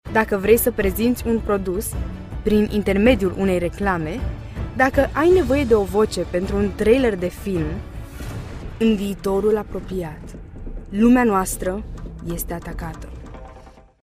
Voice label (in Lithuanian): (MOT) Rumunu balsas, moteris